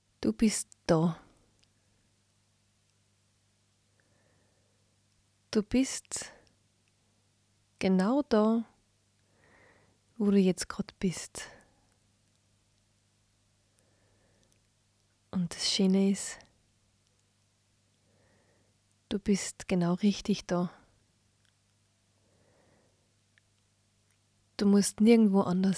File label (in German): Meditation Innere Friede ohne Musik